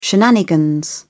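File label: "shenanigans", British English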